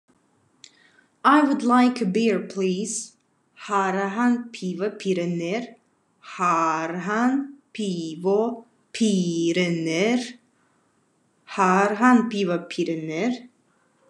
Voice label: Khakas I'd like a beer please

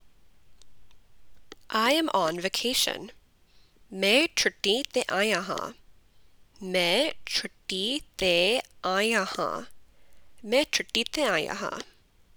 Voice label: Punjabi I am on vacation

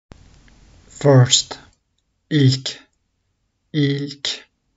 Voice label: first, turkish; the talking turkish phrasebook

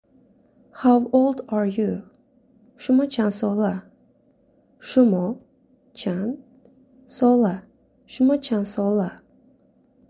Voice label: How old are you, tajik

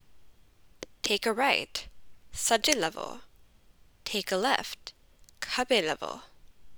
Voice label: Punjabi Take a left take a right

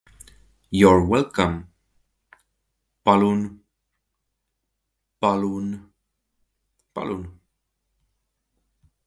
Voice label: You re welcome, estonian; the talking estonian phrasebook

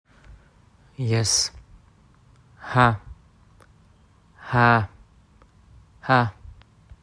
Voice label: "Hə", Yes, azerbaijani

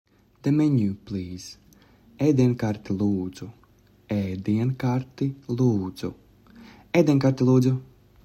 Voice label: The menu please, Latvian; the talking latvian phrasebook

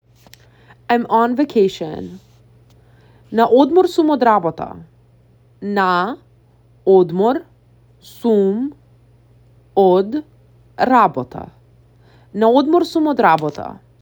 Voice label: I am on vacation work, macedonian; the talking macedonian phrasebook

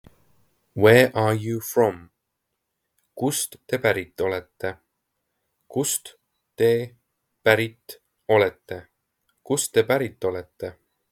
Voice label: Where are you from, estonian; the talking estonian phrasebook

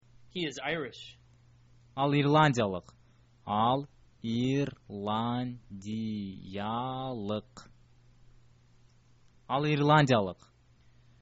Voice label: he is irish, kyrgyz

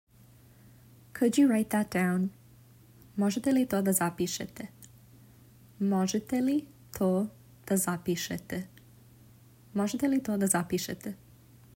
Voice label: Could you write that down, serbian

